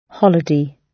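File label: "holiday", British English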